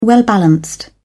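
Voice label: "well-balanced", British English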